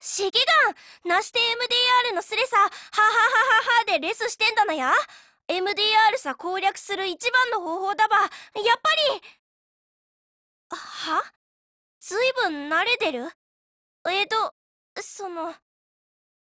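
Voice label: DIALOGUE Japanese; Type82S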